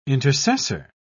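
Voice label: "intercessor", English, British English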